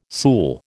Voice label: "sool", American

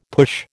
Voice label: "push", American

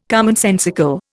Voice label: "commonsensical", Indian

